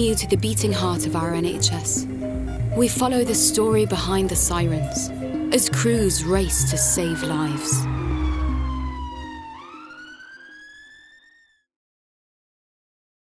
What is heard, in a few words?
female arabic and english english ambulance service; nhs